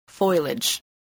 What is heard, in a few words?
"foliage", English voice, spelling